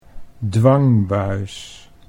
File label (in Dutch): "dwangbuis", Dutch